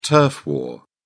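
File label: "turf war", British English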